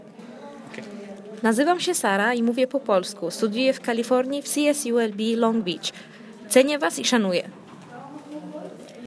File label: Polish